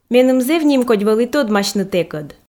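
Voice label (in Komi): меным зэв нимкодь вӧлі тӧдмасьны тэкӧд, Komi, female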